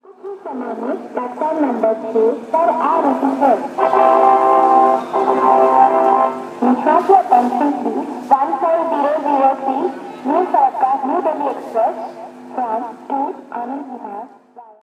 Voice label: Announcement Through Blown Speaker Hyderabad Train Station; Environments; Samuel Corwin; Selected Field Recordings from India and Nepal Volume III